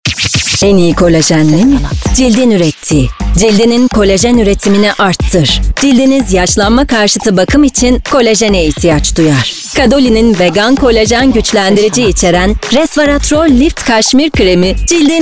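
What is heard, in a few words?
Turkish, seslendirme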